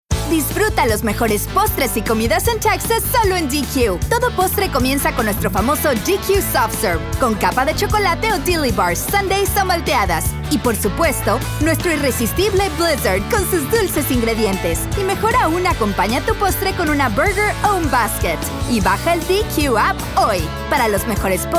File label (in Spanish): Spanish Radio; June Treat Montage